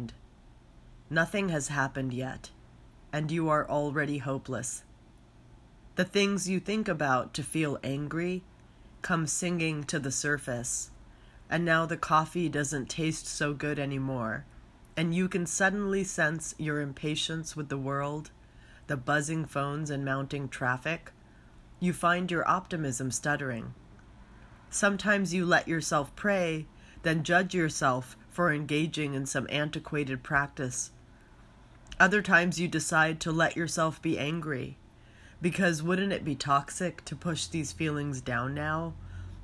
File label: Two Voices